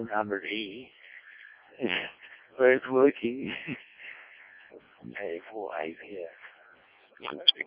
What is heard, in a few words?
decoded speech, 7177KHz